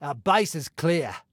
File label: Base Clear, Male